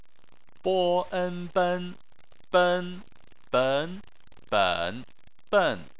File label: "ben", Chinese